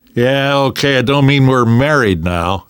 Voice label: you are welcome, namvet, survivor voice; Left 4 Dead 2; left4dead2 dlc3